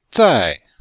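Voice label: "zài", Chinese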